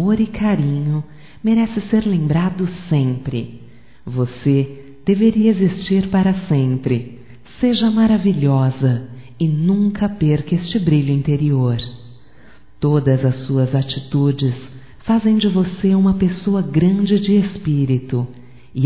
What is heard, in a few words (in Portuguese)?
ANIV NEUTRO, fem; Aniversarios; Telemensagens; Telemensagens 2017